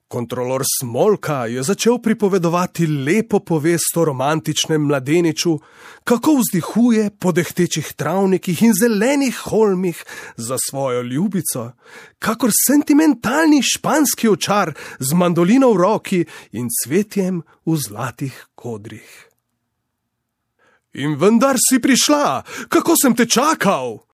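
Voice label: slovenian male narration; voice demos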